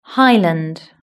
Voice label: "highland", British English